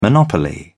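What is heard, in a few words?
"monopoly", British English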